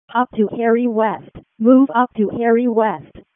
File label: EMS ALERT; wake; p25